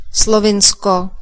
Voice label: "slovenia", cs; gcompris; geography